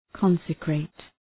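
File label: "consecrate", English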